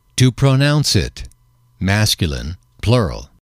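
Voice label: "to pronounce it", English, male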